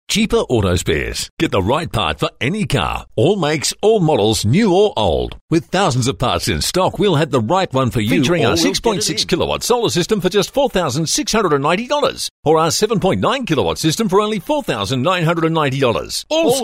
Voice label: Hard Sell